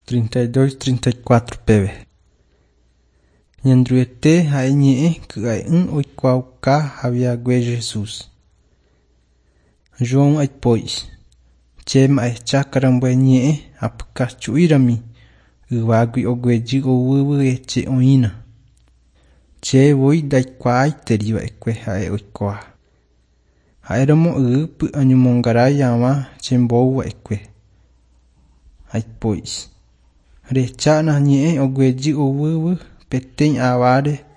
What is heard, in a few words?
john guarani mbya, bible